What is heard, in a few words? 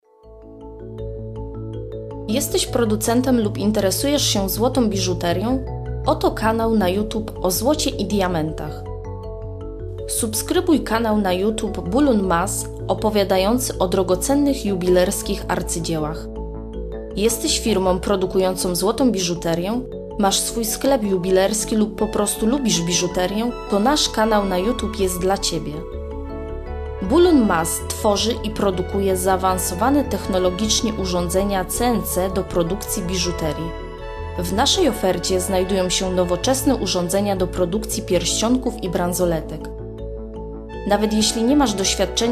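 Polish, female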